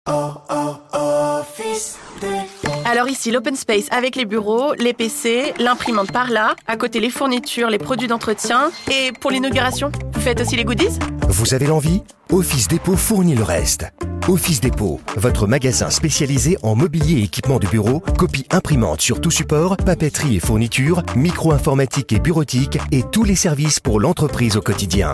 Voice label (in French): voix FR; Office depot